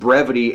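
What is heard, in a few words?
"brevity", US English; pronunciations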